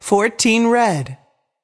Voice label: voice over, roulette; frenchroulette3